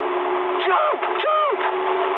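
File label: English bailout mood high; speech; War Thunder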